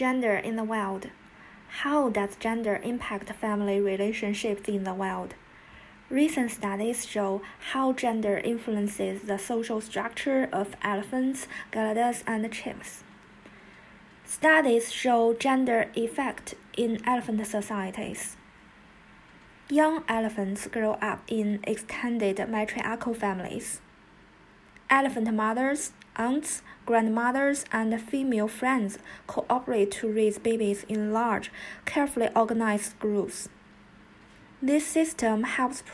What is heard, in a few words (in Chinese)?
英语朗诵